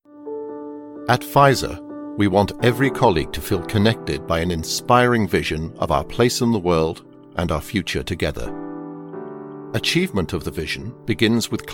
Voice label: english british corporate videos